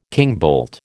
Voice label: "kingbolt", American

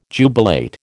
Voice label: "jubilate", American

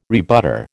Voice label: "rebutter", American

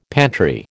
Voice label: "pantry", American

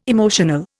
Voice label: "emotional", Indian

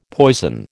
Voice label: "poison", American

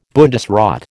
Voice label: "Bundesrat", American

